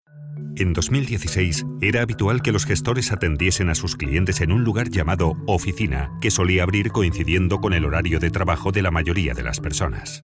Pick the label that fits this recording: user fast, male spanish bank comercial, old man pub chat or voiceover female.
male spanish bank comercial